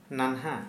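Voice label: Santali male thin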